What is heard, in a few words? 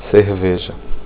beer, portuguese; word of day